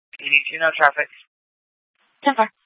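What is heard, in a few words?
Police Department North Dispatch, scanner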